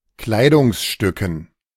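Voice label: German "Kleidungsstücken"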